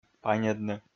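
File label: "паньӧдны", Komi, male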